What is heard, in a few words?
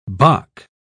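"bock", British English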